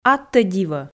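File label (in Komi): Komi, аттӧ дивӧ, female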